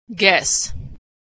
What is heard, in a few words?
"guess", American English; Consonant Sound Pair